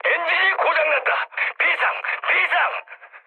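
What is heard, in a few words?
Korean damaged engine mood med; War Thunder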